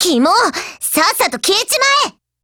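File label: SKILL Japanese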